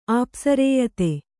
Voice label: "ಆಪ್ಸರೇಯತೆ", kannada